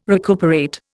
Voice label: "recuperate", Indian